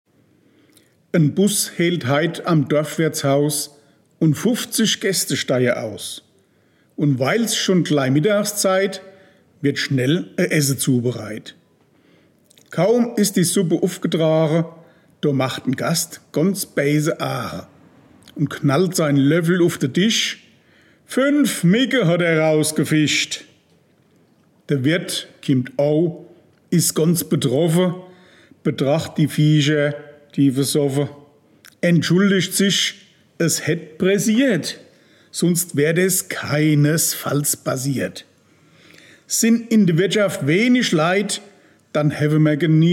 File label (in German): Mundart Gedicht; E Wink sou schnell